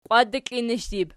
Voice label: "qiwatdiklinishdur", Archi